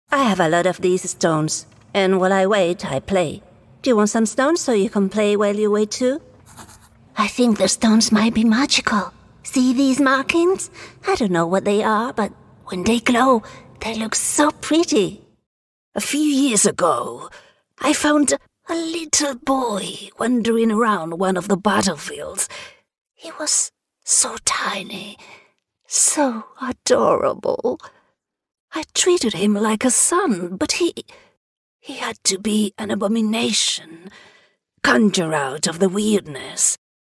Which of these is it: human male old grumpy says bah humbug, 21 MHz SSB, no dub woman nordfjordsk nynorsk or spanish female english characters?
spanish female english characters